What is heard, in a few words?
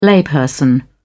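"layperson", British English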